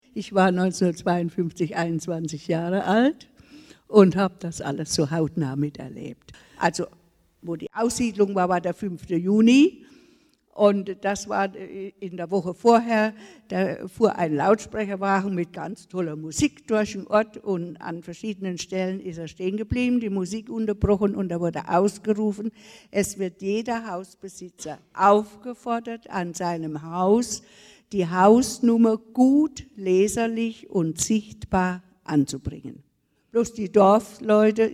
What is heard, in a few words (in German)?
Zeitzeuge Frau; Anbringen von Hausnummern